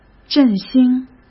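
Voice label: "振兴", Mandarin Chinese; hsk; chinese-flashcards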